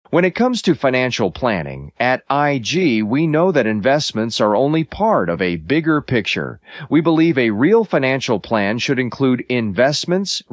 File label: Male